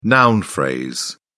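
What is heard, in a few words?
"noun phrase", British English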